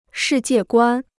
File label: "世界观", Chinese